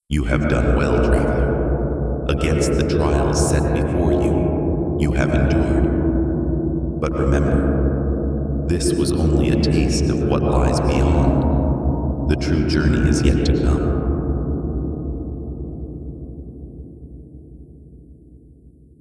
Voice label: narration outro; kz realmshift